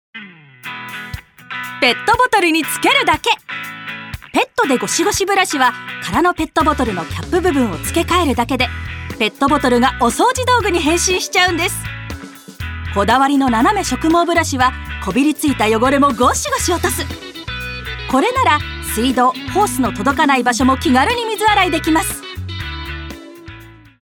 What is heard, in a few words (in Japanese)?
通販 高音 元気 「お掃除道具」